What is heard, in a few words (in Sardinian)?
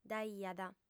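"dd'aiat", female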